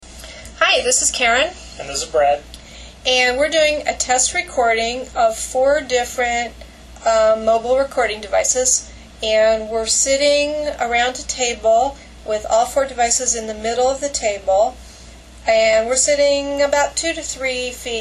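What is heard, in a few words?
olympus external mic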